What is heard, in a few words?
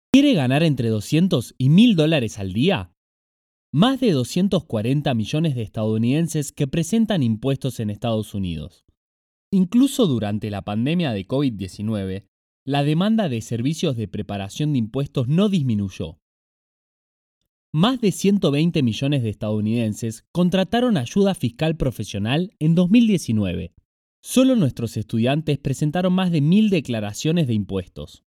Spanish (LA), Male